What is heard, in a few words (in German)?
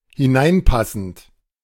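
"hineinpassend", German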